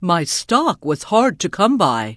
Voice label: NPC Tuskarr Female Vendor; Warrior

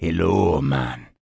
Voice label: Troll Male Standard Greeting; NPC

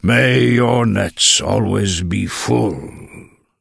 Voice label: Tuskarr Male Farewell; NPC Tuskarr Male Warrior

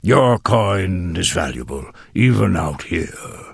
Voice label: Tuskarr Male Vendor; Warrior

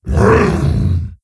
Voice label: Wound Crit, Creature; Prophet Tharonja

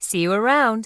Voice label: Human Female Standard NPC Farewell

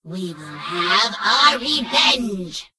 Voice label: Gnome Female Farewell

